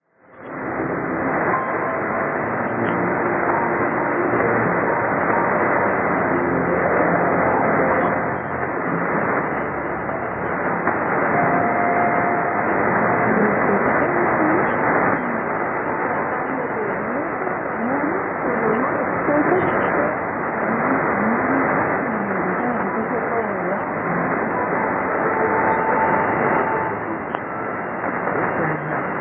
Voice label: 5910.1kHz; Marfil Estereo; コロンビア

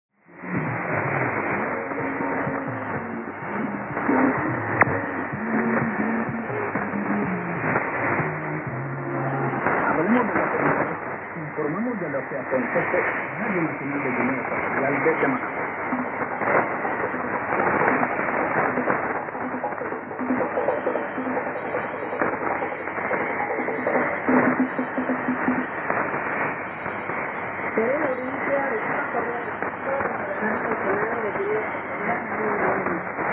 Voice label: 6250kHz; Radio Malabo

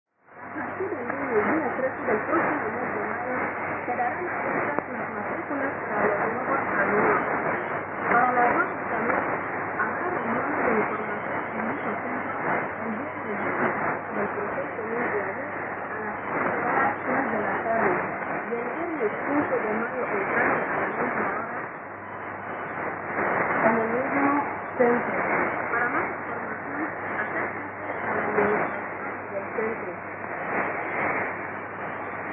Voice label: 6250kHz Radio Nacional; Malabo

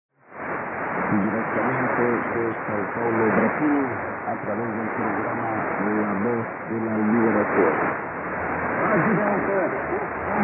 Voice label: 6019.3kHz; Radio Victoria; ペルー

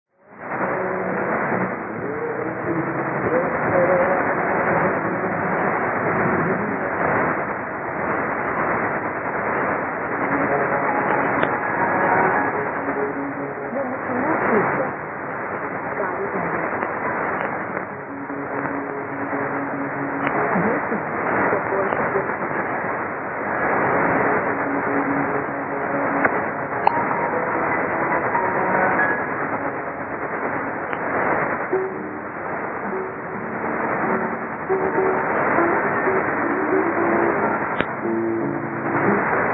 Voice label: 6010kHz; La Voz de tu Conciencia; コロンビア

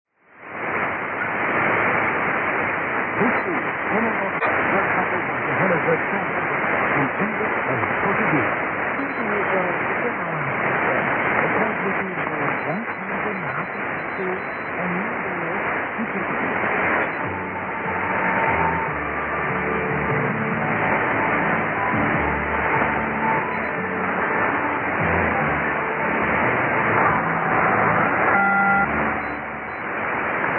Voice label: 3345kHz; Channel Africa

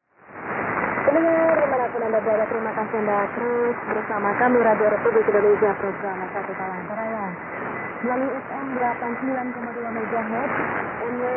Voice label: 3325kHz; RRI-Palangkaraya

